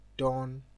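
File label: "don" Nigerian Pidgin, male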